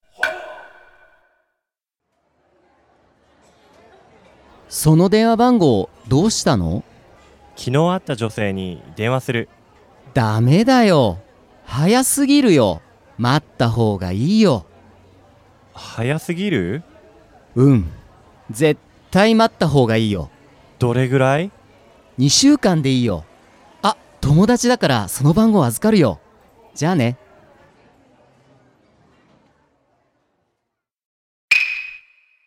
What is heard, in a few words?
dialog; JapanesePod101; Beginner Season 1